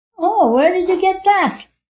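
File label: Wherd You Get That, Female; Talking Sound Effects; VoiceBox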